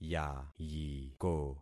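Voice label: "yayiko", concatenated syllables; Affixing experiment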